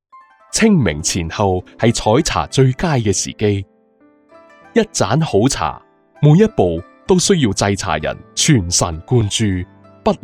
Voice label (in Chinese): 粤语 专题 六堡茶